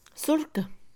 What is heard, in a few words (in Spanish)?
surco, Mixe, Mujer; INALI